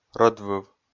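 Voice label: "рӧдвыв", Komi, male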